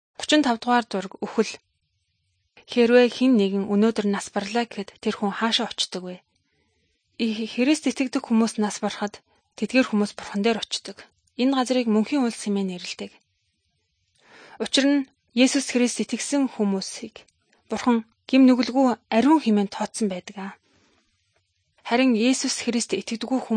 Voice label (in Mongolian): good news mongolian